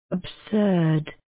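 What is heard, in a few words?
"absurd", British English, female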